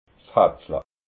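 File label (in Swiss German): "hatzla", Alsatian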